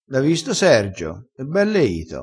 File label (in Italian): "bell e ito", vocabolario dialettale